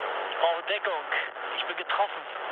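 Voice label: German aircraft damaged mood low, speech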